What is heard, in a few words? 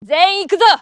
japanese Moving; azurlane hoi4; azurlane tj